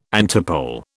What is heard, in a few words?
"antipole", British